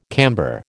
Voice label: "camber", American